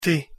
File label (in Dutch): "tè" Lomabardisch; thee-in-andere-talen